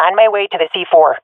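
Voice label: swat female; omw to loosebomb; Counter Strike; CS:GO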